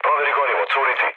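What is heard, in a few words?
Serbian tank damaged mood low; speech; War Thunder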